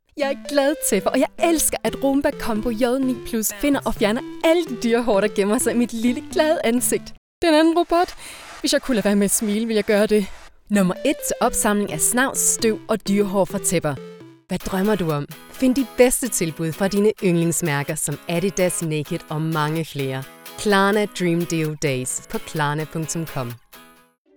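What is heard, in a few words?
Danish, female, Voicereel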